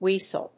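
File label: "Wiesel", English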